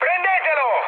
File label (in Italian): attack position, mood high, speech it